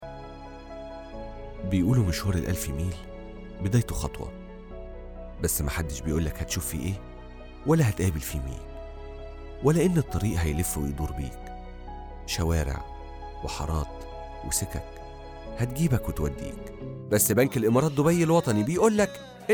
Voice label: commercial Samples